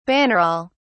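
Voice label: "bannerol", British English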